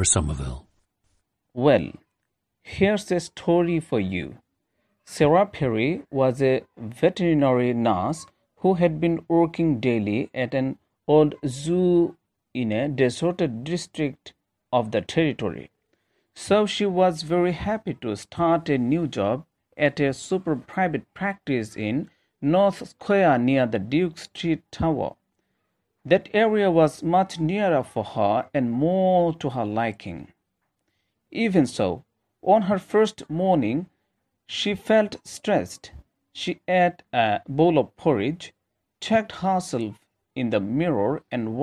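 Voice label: bangladesh, International Dialects of English Archive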